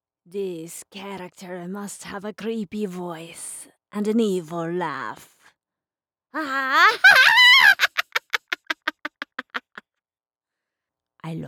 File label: Voodoo Old Witch